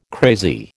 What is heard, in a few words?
"crazy", American